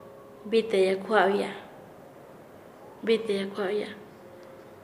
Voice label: Nasa Yuwe; hablar contra otro; paez